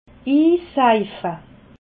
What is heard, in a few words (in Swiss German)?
"inseife", alsace